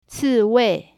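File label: "ciwei" hedgehog, mandarin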